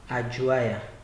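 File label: "ajuwaya", Nigerian Pidgin, male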